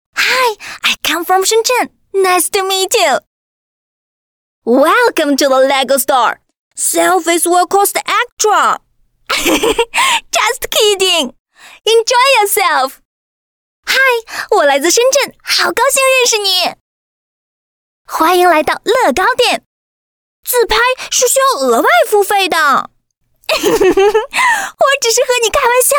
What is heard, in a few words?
Mandarin China Character Voices; LEGO